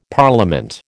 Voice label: "Parliament", American